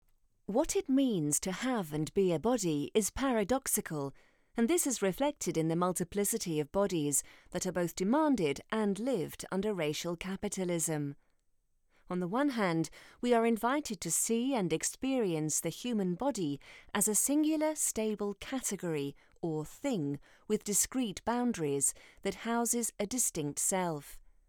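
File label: corporate, female; Voice actor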